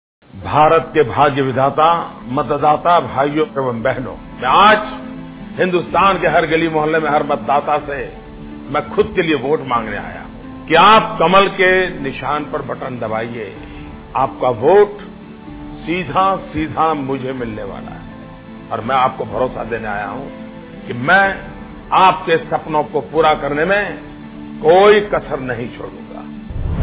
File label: Political Campaigns